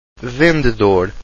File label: "vendedor", Portuguese